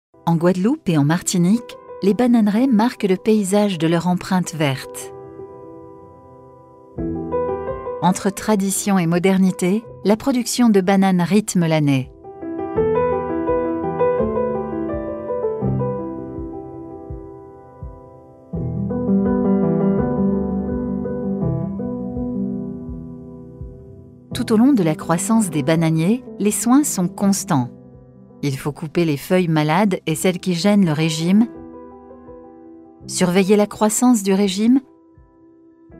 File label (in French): commentaire; bananeraie